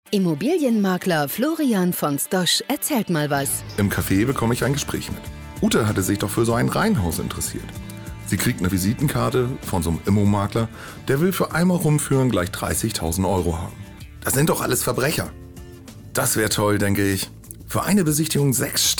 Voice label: Infomercial